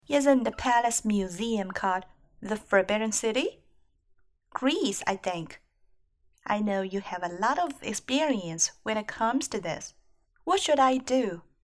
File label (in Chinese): 英语对话